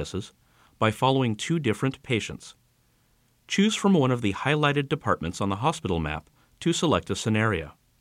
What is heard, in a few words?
Narration Ventilator Management